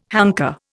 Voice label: "hanker", Indian

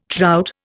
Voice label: "trout", Indian

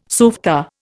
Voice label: "softa", Indian

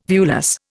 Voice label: "viewless", Indian

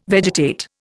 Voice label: "vegetate", Indian

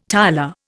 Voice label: "tiler", Indian

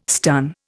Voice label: "stun", Indian

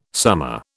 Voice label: "summa", British

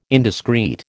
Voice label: "indiscrete", American

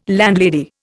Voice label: "landlady", Indian

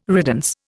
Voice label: "riddance", Indian

